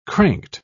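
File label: "cranked", English, British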